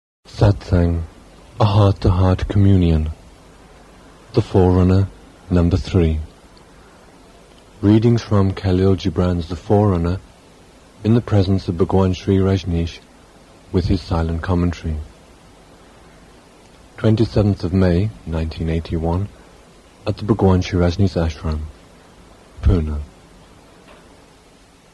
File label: Announcement